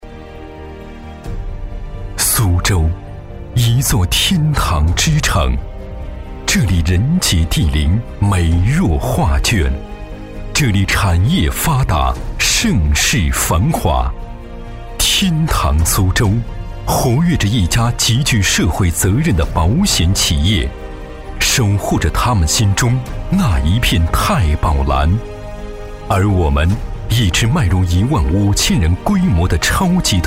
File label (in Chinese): 男, 专题